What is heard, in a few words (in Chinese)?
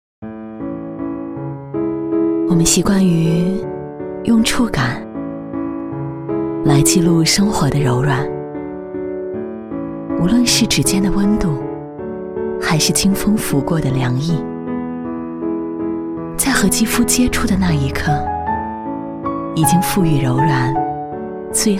女 广告 纸巾